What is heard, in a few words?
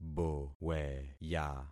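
"boweya", concatenated syllables; Affixing experiment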